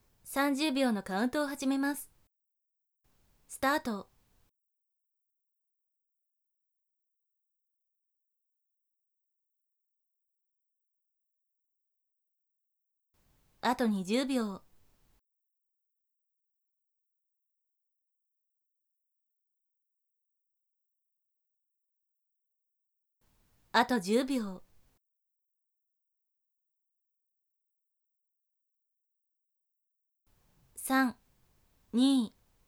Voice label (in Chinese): 计时30秒, 女生为你进行健身计数的语音; 姐姐